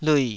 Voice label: "lui3", Taiwanese syllable

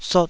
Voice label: "sot2", Taiwanese

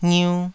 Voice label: "ngiu2", taiwanese syllables

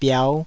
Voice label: "biau5", taiwanese Syllables

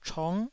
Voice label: "chong9", taiwanese

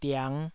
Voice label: "diang5", Taiwanese

